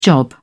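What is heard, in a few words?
"job", British English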